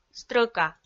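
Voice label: "стрӧка", Komi-Zyrian, female; audio dict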